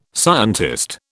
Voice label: "scientist", British

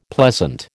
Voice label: "pleasant", American

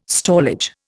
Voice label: "stallage", Indian

